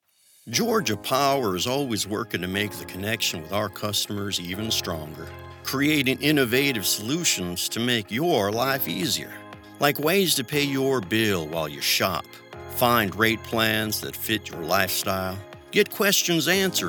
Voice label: online Ad trustworthy male voiceover calm reliable; GeorgiaPower